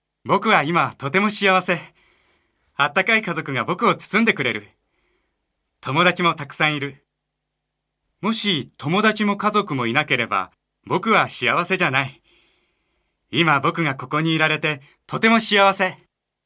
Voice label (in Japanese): 青年モノローグ